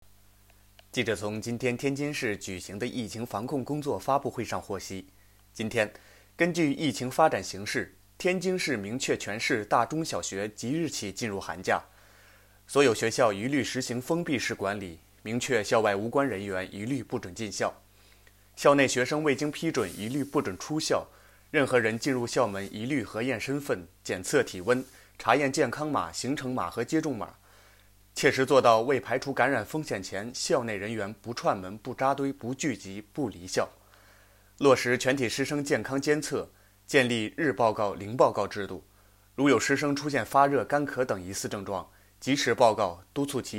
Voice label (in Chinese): 疫情新闻播音